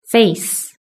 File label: "face", british english